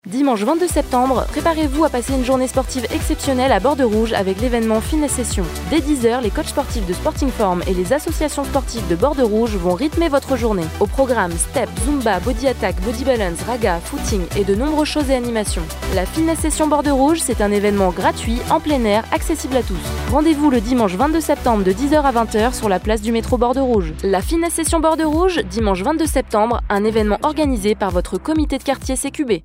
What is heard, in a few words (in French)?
spot fitness; tisseo toulouse fm